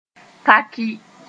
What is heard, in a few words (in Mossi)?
"takɩ", moore